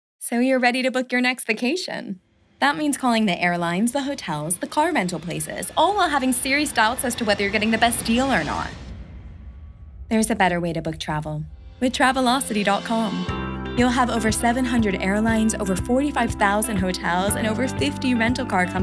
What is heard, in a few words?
US Commercial